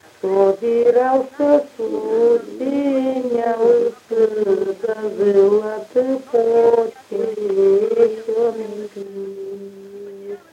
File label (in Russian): Речевой портрет, мезень